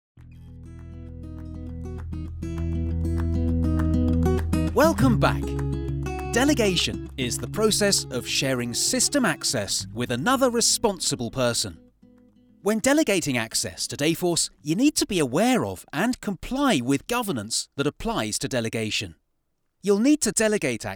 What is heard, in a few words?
E-learning